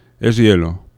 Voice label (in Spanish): "es hielo", fricatives; laboratori fonetica